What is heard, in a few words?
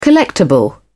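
"collectable", British English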